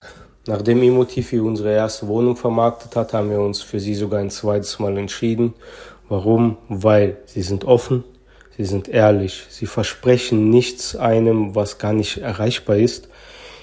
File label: testimonial